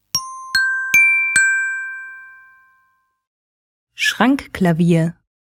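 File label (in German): "Schrankklavier", German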